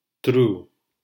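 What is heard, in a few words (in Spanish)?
"tru" Spanish syllable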